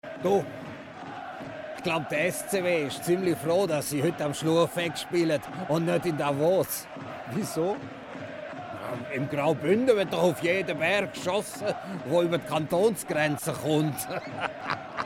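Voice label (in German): Werbung Schweizerdeutsch; Schweitzerdeutsch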